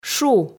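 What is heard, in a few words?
"шу", Komi, female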